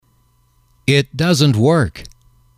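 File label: "it doesn't work", English, male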